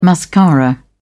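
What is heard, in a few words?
"mascara", British English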